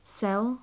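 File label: "sell", British English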